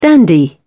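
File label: "dandy", British English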